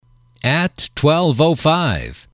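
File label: "twelve five", English, male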